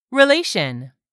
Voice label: "relation", english; 3000 words